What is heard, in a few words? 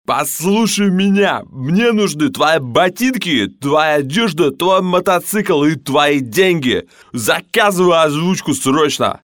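perevodchik filmov, film translator voice; volodarskij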